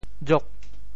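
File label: "jok8", Teochew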